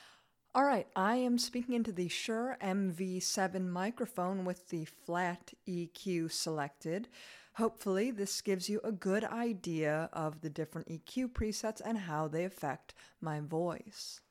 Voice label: Shure MV7 manual flat microphone

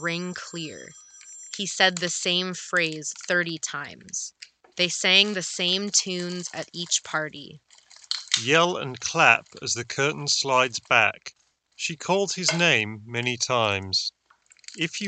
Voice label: HyperX Cloud Core Office microphone sample

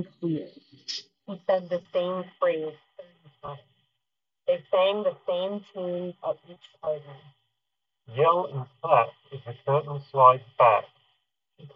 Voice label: 1More Comfobuds Mini Street microphone sample

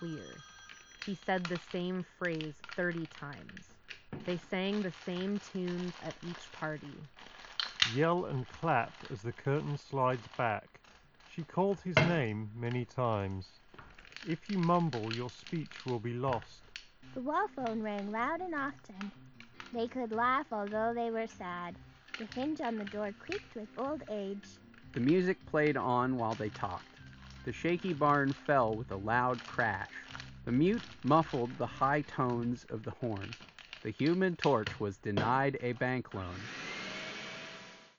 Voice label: Samsung Galaxy Buds 2 Office microphone sample

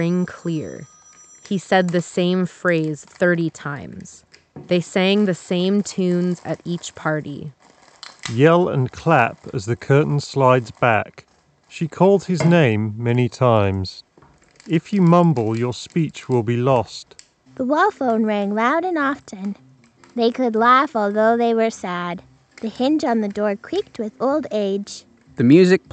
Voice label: Office microphone sample; Logitech G333

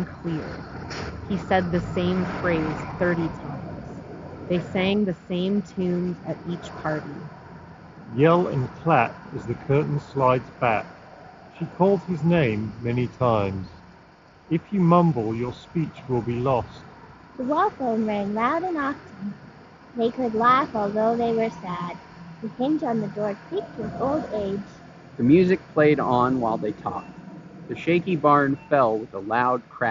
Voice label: Yamaha YHE700 Street microphone sample

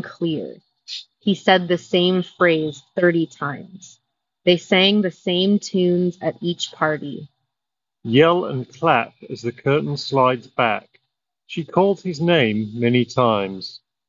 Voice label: Street microphone sample; Nothing Ear Stick

